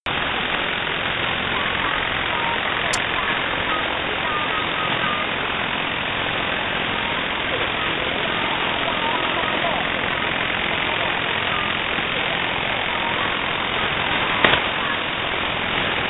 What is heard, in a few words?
China robot number station; web SDR; dash number stations recordings; dash recordings from web sdr